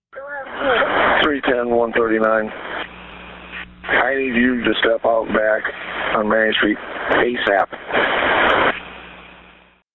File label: police radio